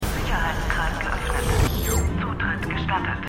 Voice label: Computerstimme F; "Was du mitnimmst" ("What You Take with You"); The 100

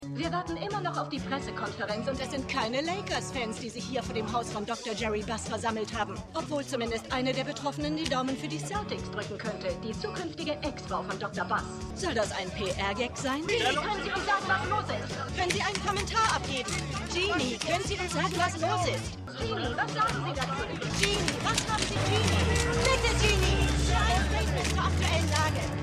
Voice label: Reporterin; Winning Time

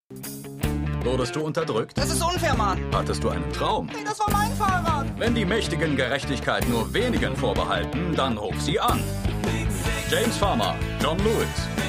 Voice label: Werbespot; The Good Fight

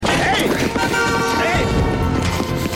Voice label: Mann, Stimmproben

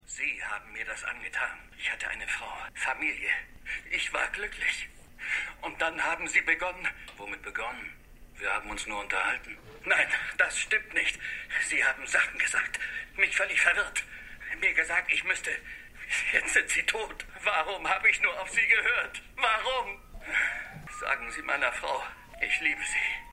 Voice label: Tonbandstimme; Stimmproben; Fargo

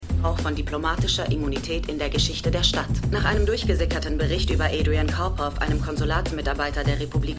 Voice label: Nachrichtensprecherin; For The People

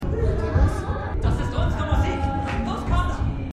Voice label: Korean Passagier; Pachinko

